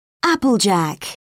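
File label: "applejack", British English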